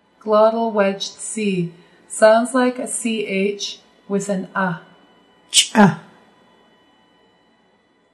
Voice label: glottle wedge c

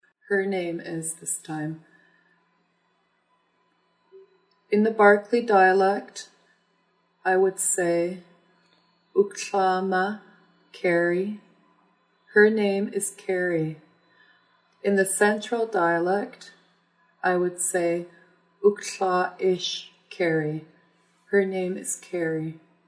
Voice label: Her Name is, Nuu-chah-nulth language phrases

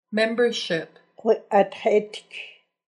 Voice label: Membership, Nuu-chah-nulth

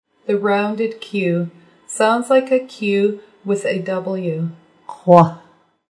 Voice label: rounded q, nuuchahnulth

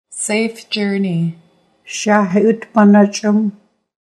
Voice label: Safe journey, Nuu-chah-nulth

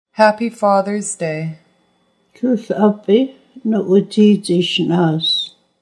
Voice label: Happy fathers day, Nuu-chah-nulth

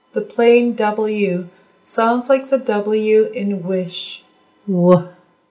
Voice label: plain w

